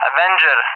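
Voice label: "Avenger", English, RUSSIA; Allied Flight; AirDefence; DCS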